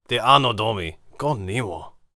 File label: warrior conversation emotion neutral